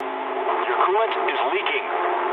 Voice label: eng damaged water mood low, speech eng

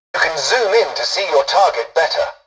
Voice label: tutorial dialogue, english; War Thunder

